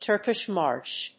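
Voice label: "Turkish March", English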